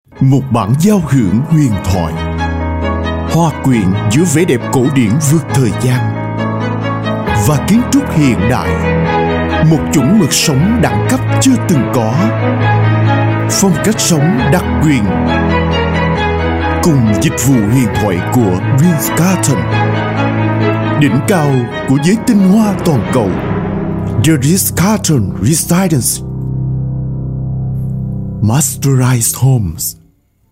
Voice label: South TVC Male, South Vietnamese